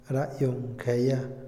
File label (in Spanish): "año nuevo", Otomí, hombre; INALI